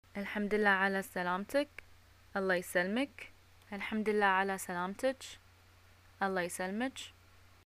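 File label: Bahraini greeting arrival, arabic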